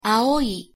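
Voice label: "aoi" Japanese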